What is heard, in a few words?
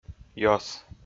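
"ёс", Komi, male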